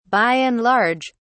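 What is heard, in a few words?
"by and large", English, British English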